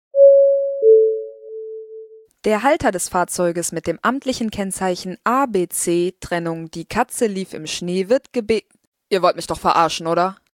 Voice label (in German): durchsage